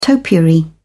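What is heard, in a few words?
"topiary", British English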